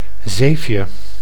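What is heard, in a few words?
"zeefje", Dutch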